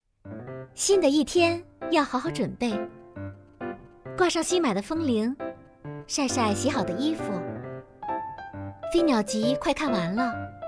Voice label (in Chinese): 护肤品广告